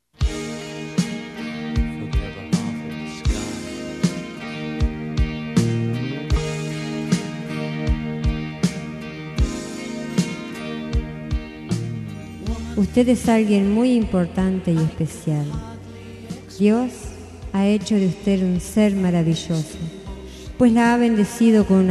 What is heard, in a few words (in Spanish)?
Emprazo Feminino, Espanhol; Variadas em Espanhol